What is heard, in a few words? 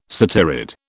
"satyrid", British